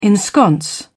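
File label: "ensconce", British English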